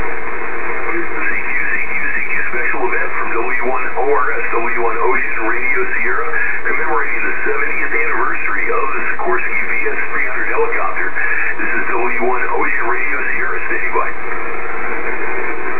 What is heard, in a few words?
on 20 CQ; 15secs